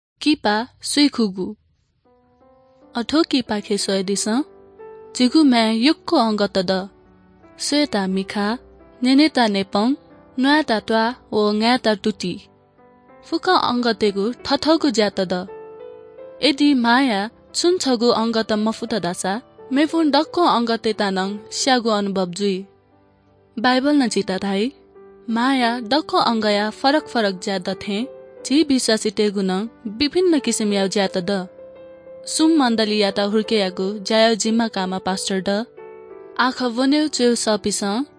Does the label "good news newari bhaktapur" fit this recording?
Yes